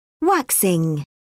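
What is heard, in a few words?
"waxing", British English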